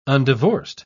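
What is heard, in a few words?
"undivorced", British English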